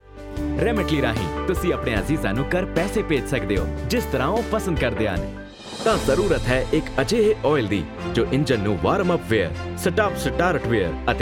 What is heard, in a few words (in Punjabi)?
Punjabi Commercial